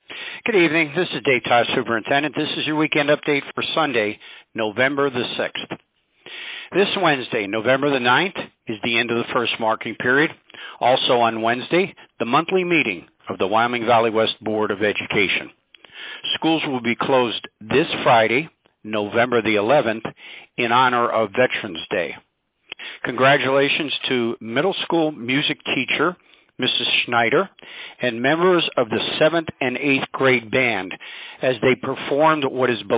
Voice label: Robocall